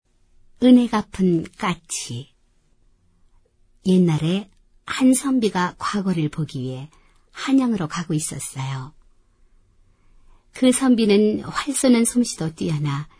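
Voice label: Korean, female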